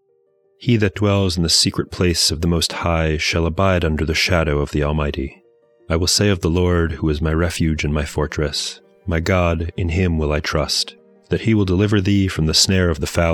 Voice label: poetry and prayer psalm english; Meir Soloveichik